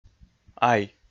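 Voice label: "ай", Komi, male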